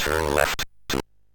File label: MOS6581R3, Electronic Speech Systems